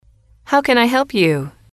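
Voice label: "how can I help you", English, female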